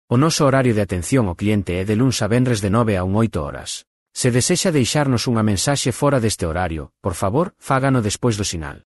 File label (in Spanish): Tono natural, Voz hombre con I.A., Locución en gallego y tono natural; demos con voces IA; Roi